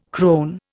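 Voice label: "crone", Indian English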